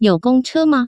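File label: you gongche, Chinese, Taiwan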